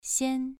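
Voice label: "xian" Mandarin Chinese, second tone, pronunciation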